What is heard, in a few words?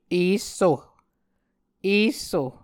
"esso", Muscogee